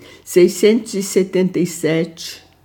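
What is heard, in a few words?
Portuguese numbers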